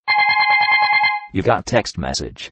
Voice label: you got a text message funny